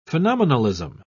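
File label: "phenomenalism", British English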